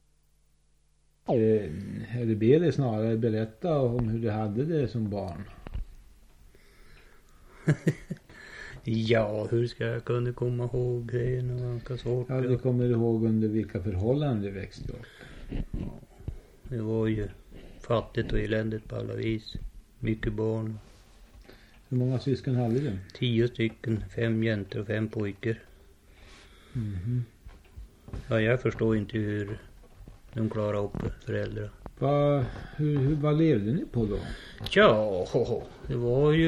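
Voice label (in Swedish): Ullånger Ångermanland, Svenska sagor, dialekter; Folkminnen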